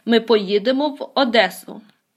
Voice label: dialogue, Ukrainian